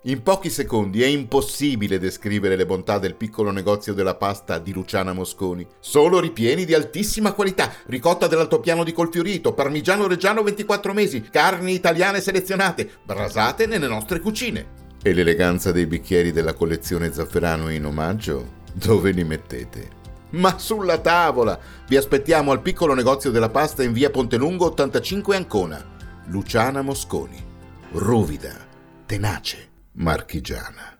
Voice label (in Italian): Spot Radiofonico; Piccolo Negozio della Pasta